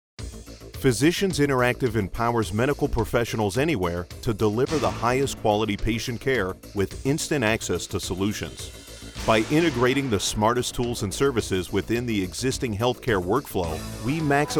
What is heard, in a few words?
Corporate Narration